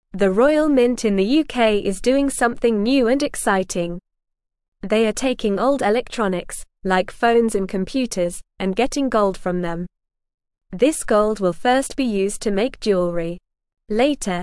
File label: English Lower Intermediate NORMAL Reading; Royal Mint Makes Gold from Old Electronics; English Newsroom